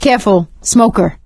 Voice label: heard smoker, survivor voice; Left 4 Dead 2; producer